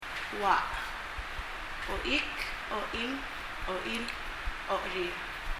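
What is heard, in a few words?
"oach" Palauan, leg; 足; 発音; 身体の各部位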